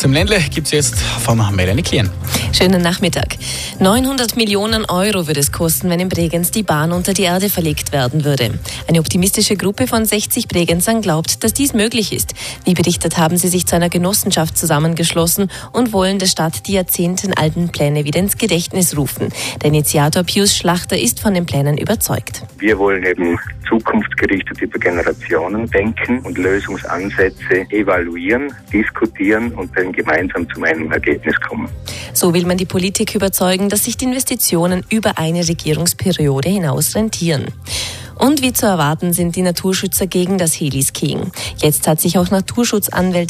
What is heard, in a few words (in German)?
ANTENNE VORARLBERG Nachrichten